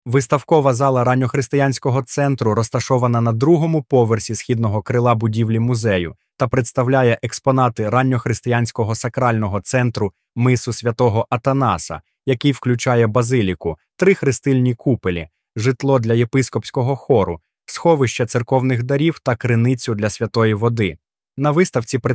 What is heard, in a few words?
Museum Ukranian; музейний аудіогід